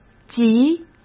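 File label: "极", Mandarin Chinese; chinese flashcards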